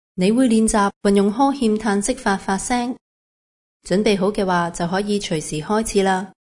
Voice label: instruction yawn sigh basic; aphasia

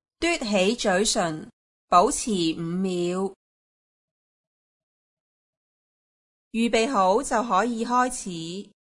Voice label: instruction oromotor lips; aphasia

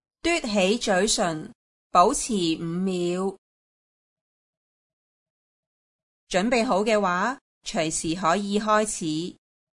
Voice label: instruction oromotor; aphasia

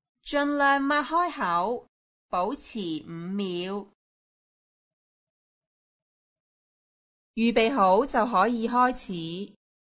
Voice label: instruction oromotor jaw; aphasia

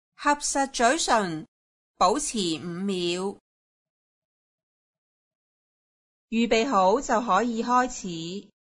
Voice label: instruction oromotor lips; aphasia

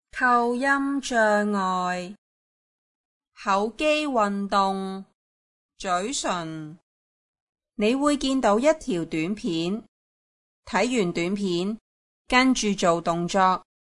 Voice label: exercise oromotor lips; aphasia

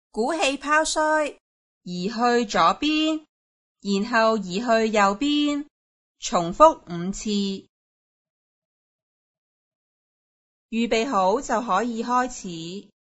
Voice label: instruction oromotor lips; aphasia

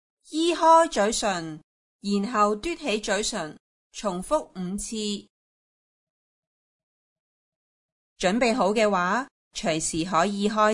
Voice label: instruction oromotor; aphasia

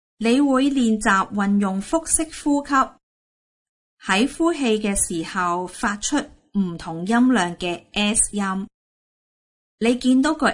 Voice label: instruction breathing; aphasia

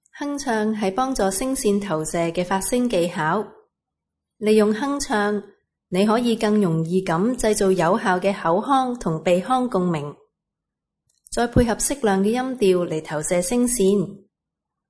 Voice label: instruction humming intro; aphasia

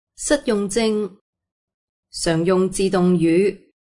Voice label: automatic speech high; aphasia

